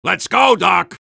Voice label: soldier activate charge; vo; tf2